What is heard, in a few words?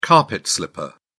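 "carpet slipper", English, British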